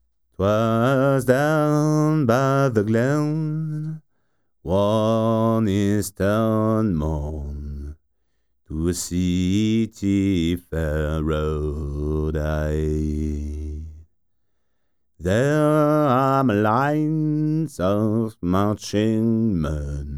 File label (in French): voix vx c414 comp eq; lexicon; ionix fw810; lexicon fw810s